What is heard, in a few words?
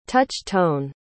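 "touch-tone", English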